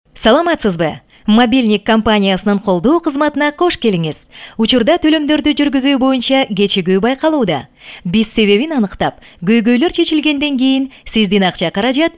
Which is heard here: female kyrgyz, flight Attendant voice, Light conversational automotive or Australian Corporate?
female kyrgyz